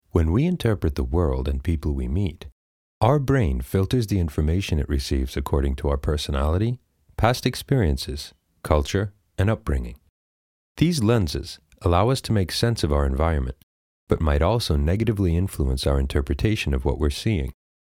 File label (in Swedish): amerikansk man